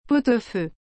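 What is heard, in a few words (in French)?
"pot au feu", French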